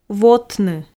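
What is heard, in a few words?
"вотны", Komi, female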